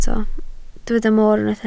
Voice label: "moor", Welsh